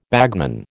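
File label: "bagman", American English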